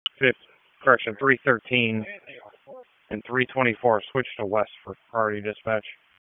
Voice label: Police Northeast District Disp, p25